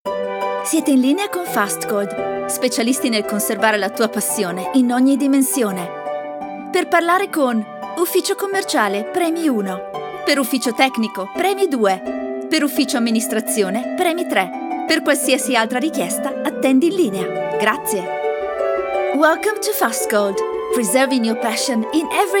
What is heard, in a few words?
female italian italian and english with soft italian accent ivr